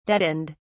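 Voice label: "deadened", en, British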